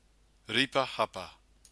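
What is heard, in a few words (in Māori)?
"ripa hapa", Māori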